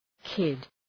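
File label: "kid", English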